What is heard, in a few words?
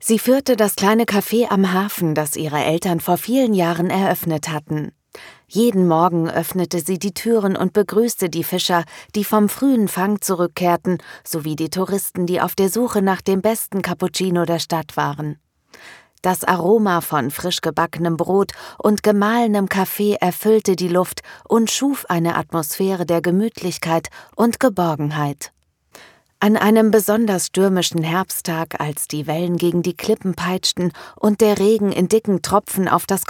german audiobooks